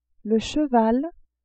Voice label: "cheval", French

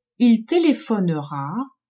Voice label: "il telephonera", french

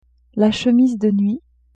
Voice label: "chemise de nuit", French; vetements

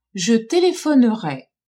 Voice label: "je telephonerais", french

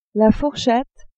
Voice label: "fourchette", French; cuisine

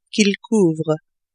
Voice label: "qu'ils couvrent", French

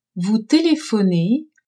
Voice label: "vous telephonez", french; telephoner

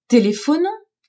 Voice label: "Telephonons !", french; telephoner

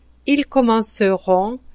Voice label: "ils commenceront", French

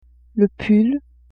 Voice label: "pull", French; vetements

